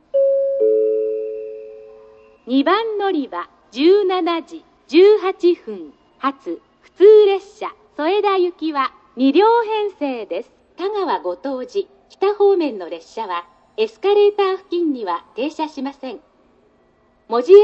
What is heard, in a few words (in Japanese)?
案内放送（普通・添田）; 小倉駅; 2 日田彦山線／田川後藤寺・添田 方面 日豊本線／行橋・中津・大分 方面 山陽本線／門司・下関 方面